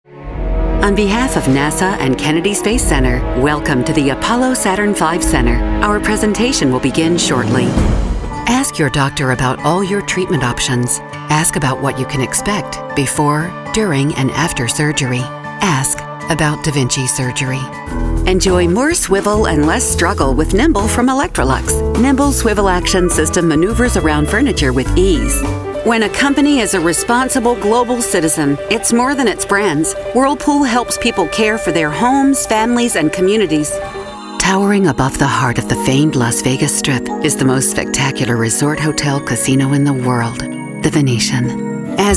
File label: narration